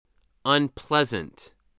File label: "unpleasant", English spelling voice